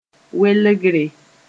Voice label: "welgre", moore

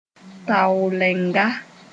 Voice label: "taoolenga", moore

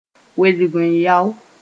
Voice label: "wedg-n-yao", moore

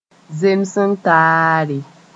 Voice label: "zems-n-taare", moore

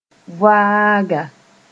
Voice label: "voaaga", moore

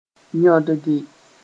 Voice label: "yõdge", moore

